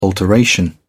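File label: "alteration", British English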